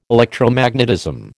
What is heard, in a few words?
"Electromagnetism", American